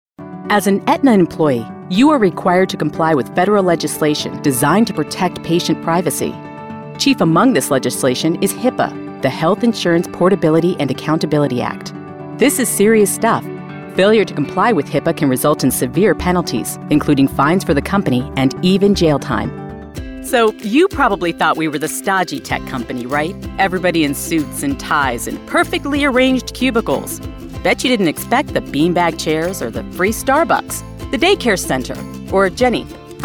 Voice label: english american e-learning